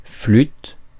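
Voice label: "flute", French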